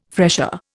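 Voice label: "fresher", Indian English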